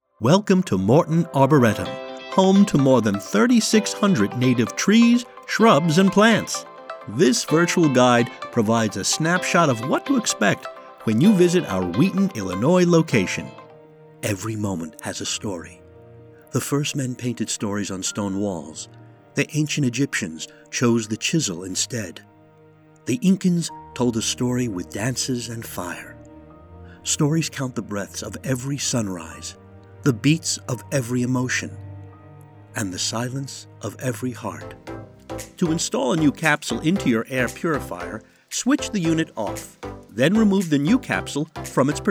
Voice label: E-Learning